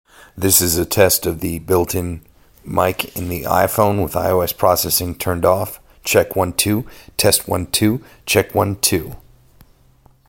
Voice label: processing agc turned off for iphone built in mic